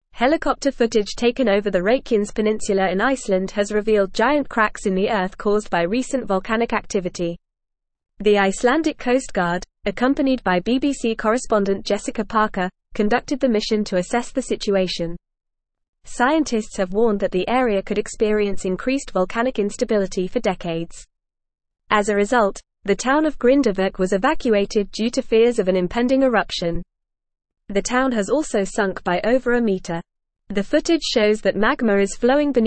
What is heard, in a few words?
English Newsroom Advanced FAST Reading; Icelandic Coast Guard captures volcanic cracks on Reykjanes